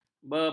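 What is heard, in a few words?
"bebang", Malay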